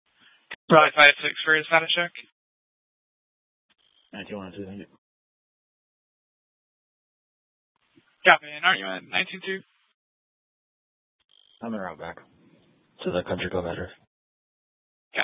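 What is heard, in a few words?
police dispatch radio, scanner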